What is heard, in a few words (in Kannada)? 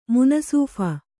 "ಮುನಸೀಫ", Kannada